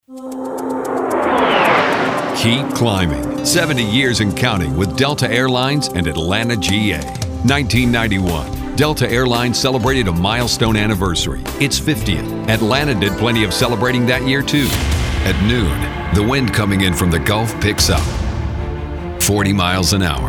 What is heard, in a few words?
Corporate Industrial